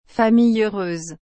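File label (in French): "famille heureuse", French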